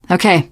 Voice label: yes, teengirl; survivor voice

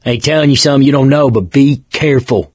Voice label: warn careful, survivor voice mechanic; source engine; left 4 dead 2

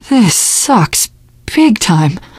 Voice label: reaction negative, teen girl

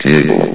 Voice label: "cable", vox; Source Engine; bshift

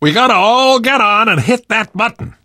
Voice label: hitting bridge button, voice; left4dead; survivor; namvet; Source engine

